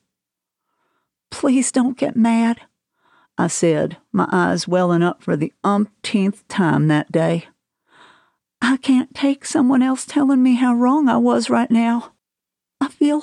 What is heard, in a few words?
1st Person Fiction Man Woman Dialogue German Accent